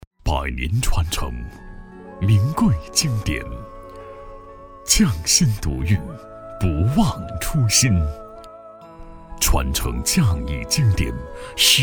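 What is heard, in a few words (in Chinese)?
广告 名酒, 普通话, 男, 中年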